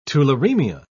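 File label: "tularaemia", British English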